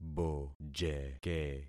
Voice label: "bojeke", concat syllables; Affixing experiment

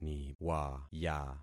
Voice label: "niwaya", concat syllables; Affixing experiment

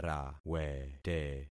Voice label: "rawete", concat syllables; Affixing experiment

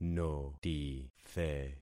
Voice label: "notithe", concat syllables; Affixing experiment

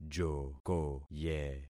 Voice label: "jokoye", concat syllables; Affixing experiment

